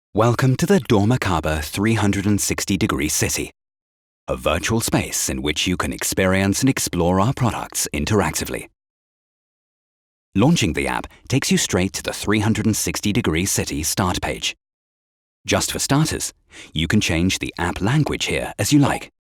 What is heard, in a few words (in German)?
explainer film; dormakaba